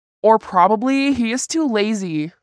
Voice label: Female